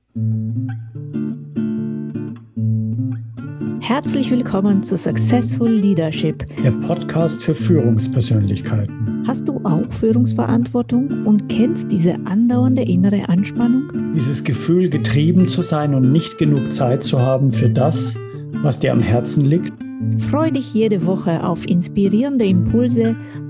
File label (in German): Intro Sprache no drums